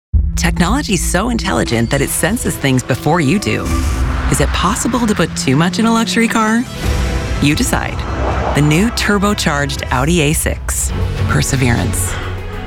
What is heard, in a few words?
Commercial